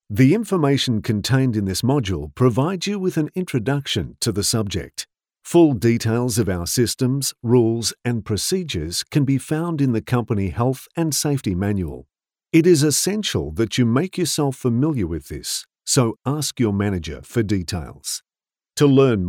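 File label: Australian English, male; voice over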